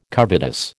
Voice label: "covetous", American